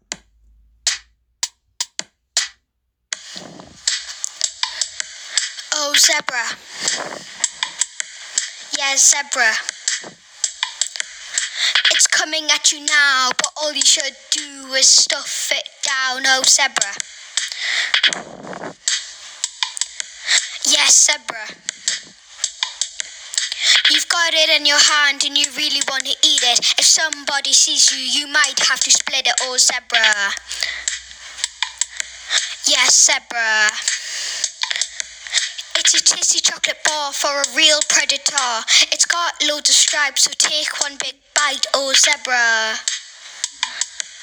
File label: advert; Zebra